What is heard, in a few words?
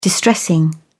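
"distressing", British English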